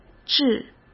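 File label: "置" Mandarin Chinese; chinese flashcards; hsk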